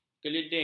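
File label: "keliding", Malay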